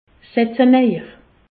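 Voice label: "setze", Alsatian, Haut Rhin, asseyez-vous; medecine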